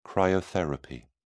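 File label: "cryotherapy", British English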